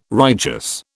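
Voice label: "righteous", British English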